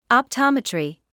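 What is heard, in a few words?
"optometry" English, British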